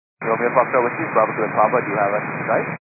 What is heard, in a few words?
122 925MHz, scanner radio